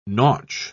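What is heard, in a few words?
"nautch", British English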